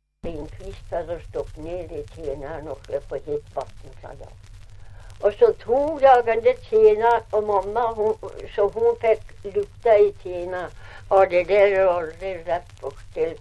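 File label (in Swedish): Ovansjö Gästrikland, dialekter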